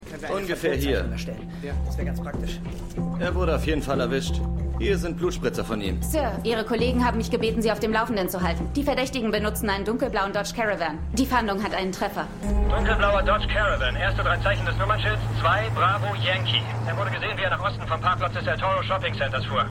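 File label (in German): Polizistin Männlich Funk; Navy CIS Origins; Oceanside PD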